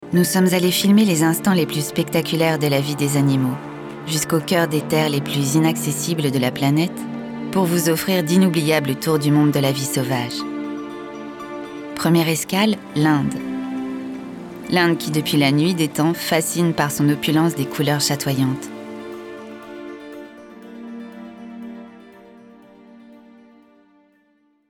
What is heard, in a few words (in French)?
documentaire animalier, voix